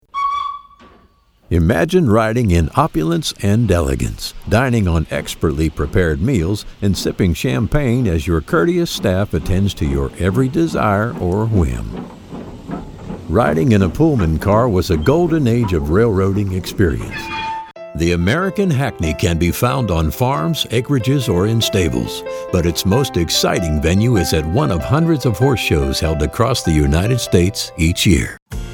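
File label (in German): US English, Kommentar, Variabel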